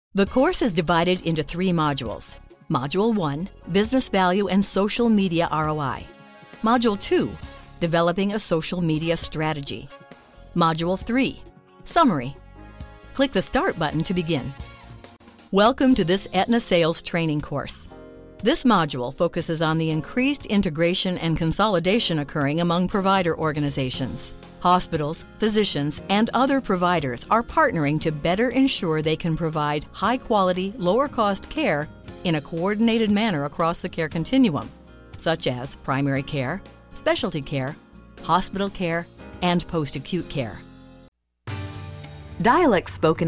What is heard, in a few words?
E-Learn